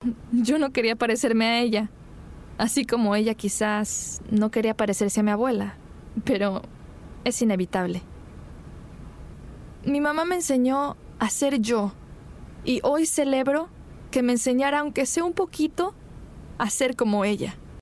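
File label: Latin American Spanish narrative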